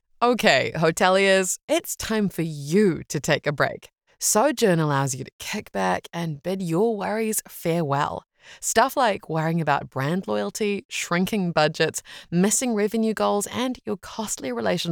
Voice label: english new zealand podcasts